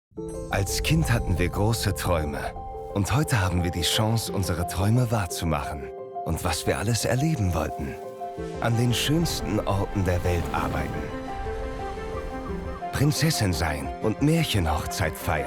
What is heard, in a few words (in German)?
Werbung